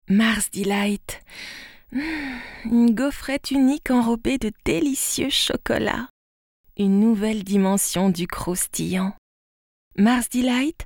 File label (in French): Voix spé Coquine